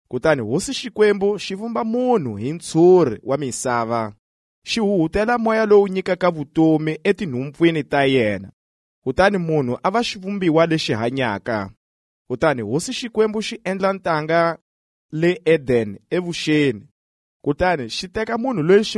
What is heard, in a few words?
Tsonga